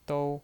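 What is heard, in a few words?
"dou" Mandarin Chinese, first tone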